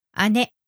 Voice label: "ane", Japanese; older sister

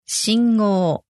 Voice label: "shingou", Japanese; traffic lights

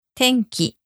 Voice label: "weather", Japanese

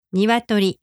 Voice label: "niwatori", Japanese

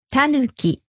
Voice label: "tanuki", Japanese

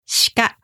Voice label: "shika", Japanese

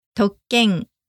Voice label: "tokken", Japanese; privilege special right

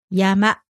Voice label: "yama", Japanese

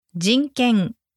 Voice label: "jinken", Japanese; human rights

